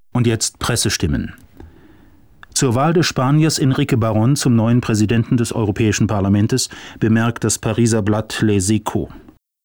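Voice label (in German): Sprache komprimiert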